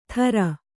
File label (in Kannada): "ಥರ", Kannada